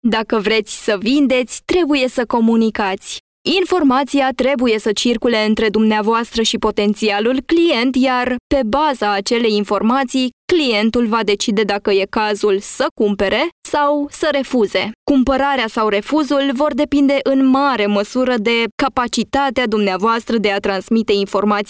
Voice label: Romanian corporate videos